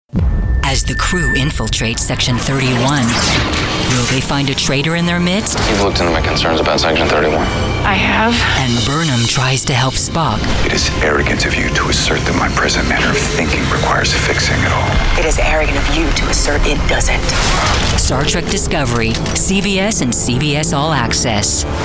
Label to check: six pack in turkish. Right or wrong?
Wrong